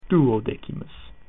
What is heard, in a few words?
latin twelfth